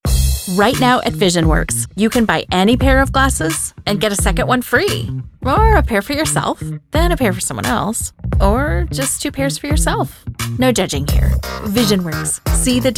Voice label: Voice Commercial